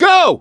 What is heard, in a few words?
emphatic go, voice; gambler